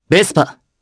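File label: Vox Japanese; Theo; Vespa; kings raid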